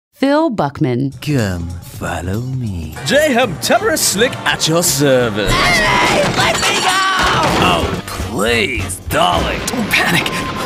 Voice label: animation